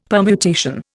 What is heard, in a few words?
"permutation", Indian; Dictionary